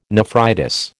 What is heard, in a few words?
"nephritis", American